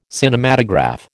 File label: "Cinematograph", American